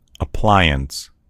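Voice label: "appliance", American English; gcompris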